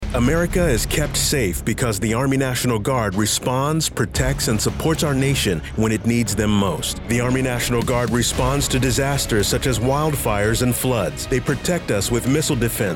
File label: Radio English; MA Guard